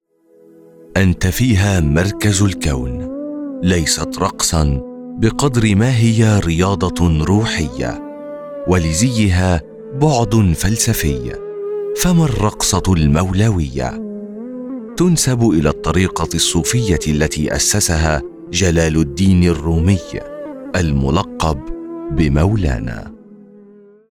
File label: arabic documentaries; arabic-voice-over-talent